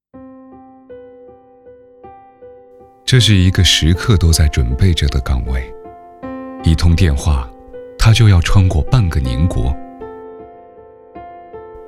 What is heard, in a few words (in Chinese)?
男 讲述类专题